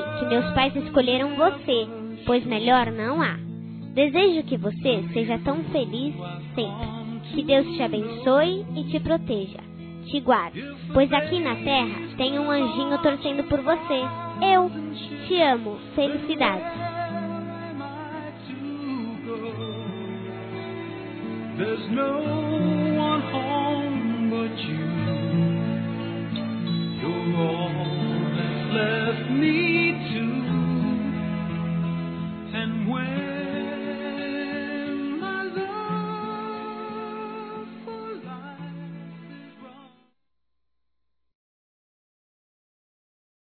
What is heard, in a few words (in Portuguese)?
ANIV. MADRINHA CRIANÇA-F, Telemensagens; Aniversário Infantil